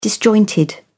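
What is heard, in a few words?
"disjointed", English, British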